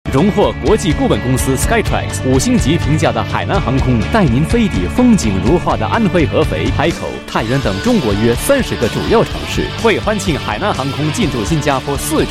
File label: Mandarin; Hainan